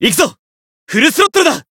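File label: variable chant, Japanese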